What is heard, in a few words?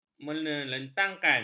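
"menelentangkan", Malay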